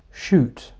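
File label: "shoot", English word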